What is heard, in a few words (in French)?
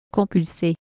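"compulser" French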